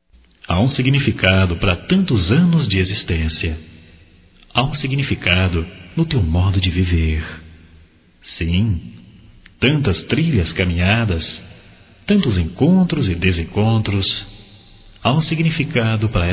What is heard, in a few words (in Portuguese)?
Aniversário Avó Masculino; Aniversarios; Telemensagens 2017